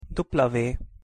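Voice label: "w", Hungarian pronunciation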